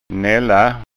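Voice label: "nalla", Scanian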